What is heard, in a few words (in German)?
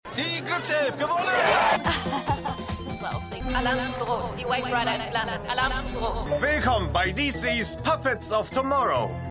Durchsage Sprecher; Spielleiter; FrauHG